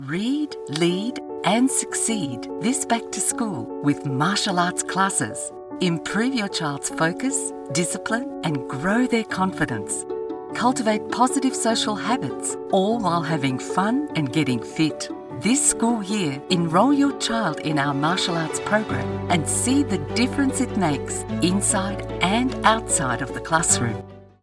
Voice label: Promos; Bigmouth